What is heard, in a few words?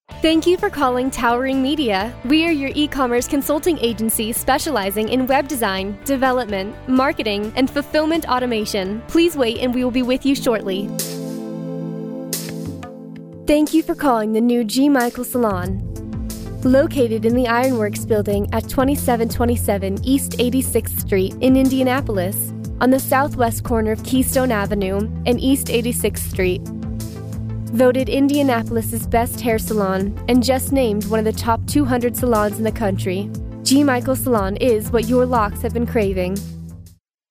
Phone